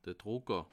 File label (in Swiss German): "drucker", alsace